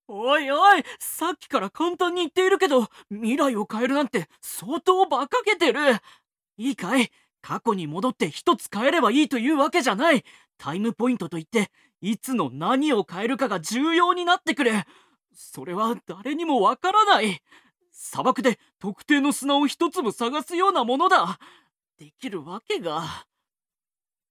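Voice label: male; 気弱な男の子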